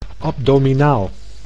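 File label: "abdominaal", Dutch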